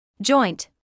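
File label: "joint", British English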